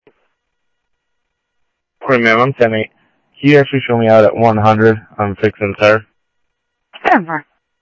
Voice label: scanner audio, Sheriff; ThompsonPeakScot; Sheriff-EastCnty; MCSO - EAST

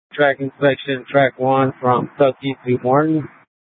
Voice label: Public Transit System Light Rail Ops, scanner

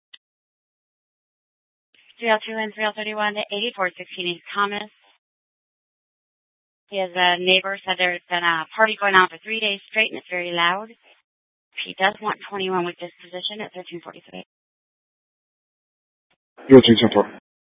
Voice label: Scottsdale PD Sth Dispatch, scanner

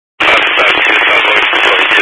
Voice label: Highway Patrol, scanner; DPS

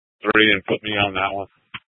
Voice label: Sheriff, scanner; Shrf Lakes

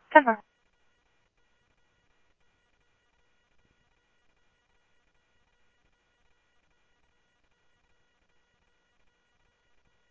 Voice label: PD Police, scanner audio

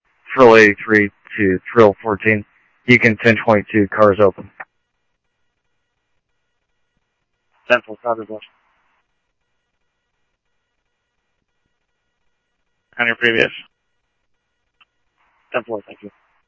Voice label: Police, scanner audio

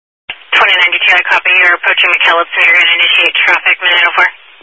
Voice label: Highway Patrol, scanner; DPS EAST; Dist 18 Phoenix Metro South Area

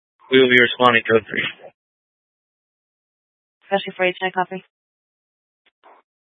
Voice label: Fire Dispatch; K8 - FDCOM 8 - South; H- Scottsdale

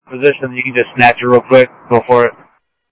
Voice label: Mesa Simulcast police; jumper

